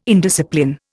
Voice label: "indiscipline", Indian

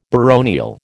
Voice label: "baronial", American

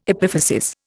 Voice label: "epiphysis", Indian

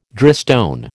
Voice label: "drystone", American

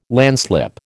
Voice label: "landslip", American

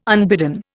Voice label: "unbidden", Indian

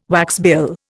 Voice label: "waxbill", Indian

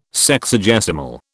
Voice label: "Sexagesimal", British

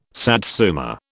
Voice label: "satsuma", British

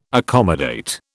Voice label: "accommodate", British

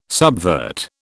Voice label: "subvert", British

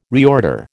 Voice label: "reorder", American

